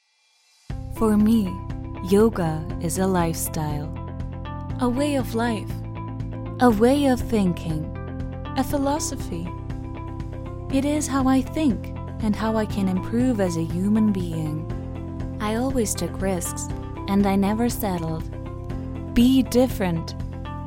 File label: Commercial English